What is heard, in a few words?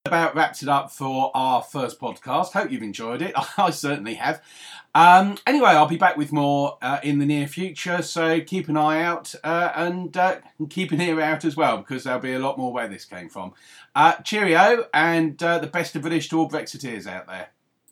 Podcast Outro